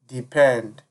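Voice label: "depend", Nigerian Pidgin, male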